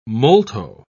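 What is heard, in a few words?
"molto", British English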